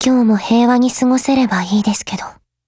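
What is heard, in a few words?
HELLO, Japanese; GF Alarm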